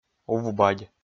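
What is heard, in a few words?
"ув-бадь", Komi, male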